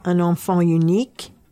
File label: "un enfant unique", French